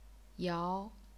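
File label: "yao", chinese pinyin, second tone; 瑶; 珧; 摇; 轺; 尧; 铫; 侥; 徭; 肴; 爻; 繇; 遥; 鳐; 窑; 谣; 陶; 姚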